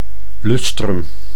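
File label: "lustrum", Dutch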